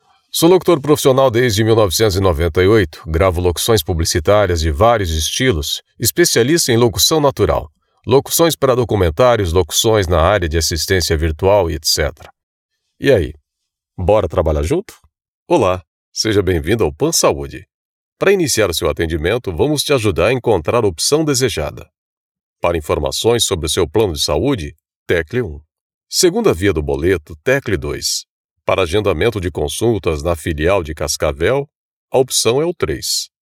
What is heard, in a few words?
Brazilian Portuguese Sample; portuguese studio